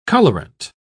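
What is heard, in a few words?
"colourant", British English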